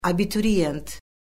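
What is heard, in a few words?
"abituriyent", Azerbaijani; Orfoqrafiya Lüğəti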